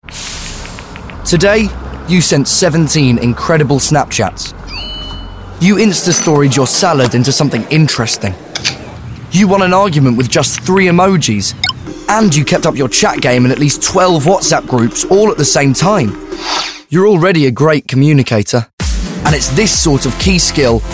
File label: commercial; Natural Accent: Neutral